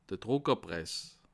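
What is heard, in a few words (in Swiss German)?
"druckerpress", Bas Rhin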